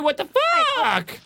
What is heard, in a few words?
whatthefuck high pitched lol